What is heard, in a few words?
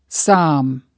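"psalm", English word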